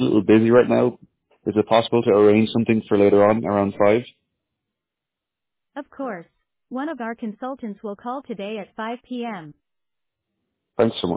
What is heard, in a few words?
bike insurance virtual agent conversation